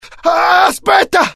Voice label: fear, IT; mohaa; dialogue